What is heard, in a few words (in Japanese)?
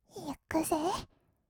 「いくぜ」, kawaii voice; 1200 kawaii voice!!; 色々なワンショット